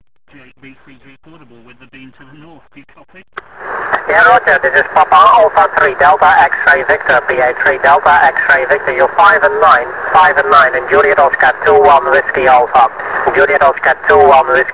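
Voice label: amateur radio contact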